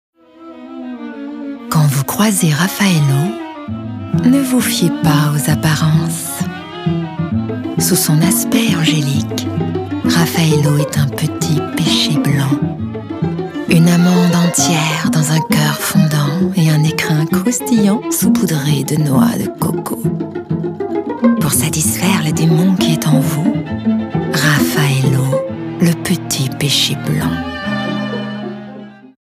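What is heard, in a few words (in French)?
diabolique gourmande, comédienne voix off; Raphaello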